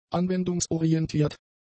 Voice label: "anwendungsorientiert", German; adjectives